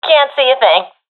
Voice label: blinded, professional fem; vo; Counter Strike Model Pack; CS:GO